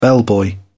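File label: "bellboy", British English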